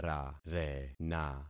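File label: "rarena", concatenated syllables; Affixing experiment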